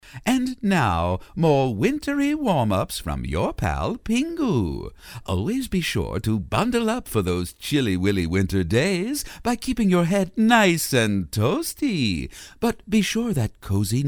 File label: Old Style Announcer, commercials